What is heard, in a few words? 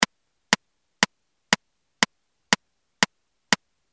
count, Roland R-8